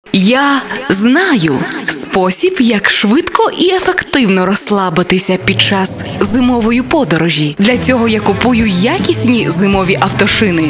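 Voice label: ukrainian female voice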